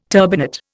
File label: "turbinate", Indian